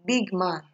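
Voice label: "bigman", Nigerian Pidgin, female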